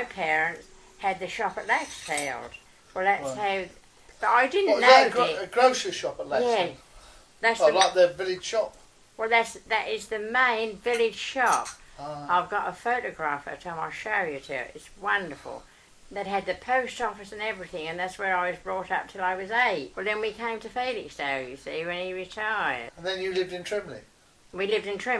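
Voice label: suffolk history, International Dialects of English Archive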